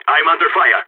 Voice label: radio taking fire, balkan; vo